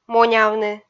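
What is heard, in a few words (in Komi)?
"монявны", Komi, female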